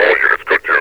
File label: all units code 2, metropolice vo; Half Life Alyx